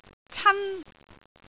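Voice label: "can1", Cantonese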